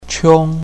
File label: "qiong1", pinyin